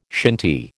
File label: "shinty", American